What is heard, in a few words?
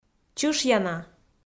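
"чышъяна", Komi, female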